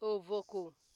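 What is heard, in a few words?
"ovoko", Nigerian Pidgin, female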